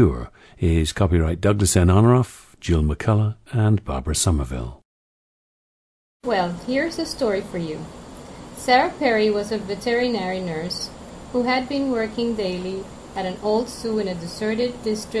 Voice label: puerto rico